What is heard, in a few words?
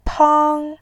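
"pang", Mandarin, tone 1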